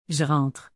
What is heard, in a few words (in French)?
"j'rentre", Canadian French; Québec; (I enter/I go)